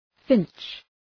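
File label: "finch", English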